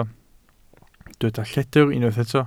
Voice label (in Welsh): "lledr", Welsh